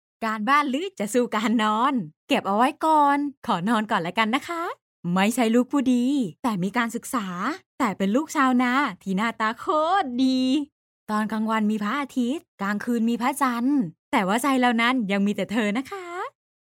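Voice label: Thai Thailand female sales; thai voice over talent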